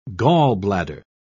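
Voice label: "gallbladder", British English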